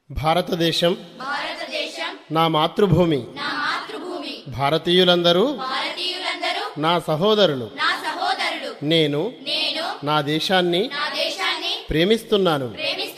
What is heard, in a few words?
telugu pledge